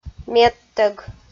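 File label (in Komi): "медтӧг", Komi, female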